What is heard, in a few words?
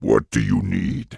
Orc Male Guard NPC Greeting